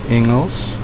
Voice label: "english", Afrikaans